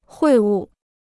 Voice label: "会晤", Chinese

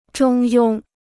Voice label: "中庸", Chinese

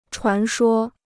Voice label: "传说", Chinese

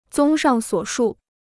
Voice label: "综上所述", Chinese

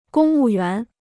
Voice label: "公务员", Chinese

